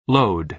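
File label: "load", American English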